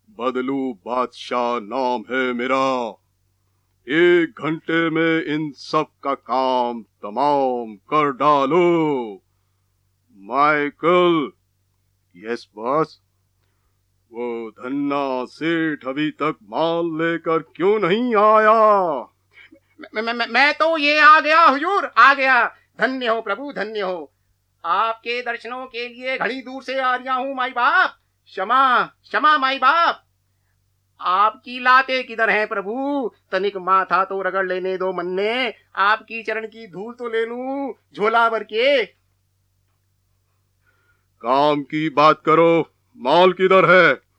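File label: ANIMATION MULTI CHARACTERS MIMICKERY HINDI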